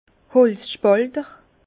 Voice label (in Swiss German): "holzspalter", Bas Rhin; vin; fend le bois